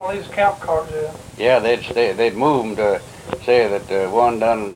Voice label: Oral history with 89 year old male Whick Kentucky, american english dialect recordings